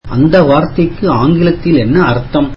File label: tamil What does that word mean in English